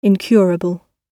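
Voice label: "incurable" British English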